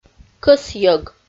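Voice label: "кӧсйӧг", Komi, female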